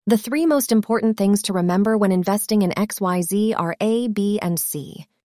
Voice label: synthesized audio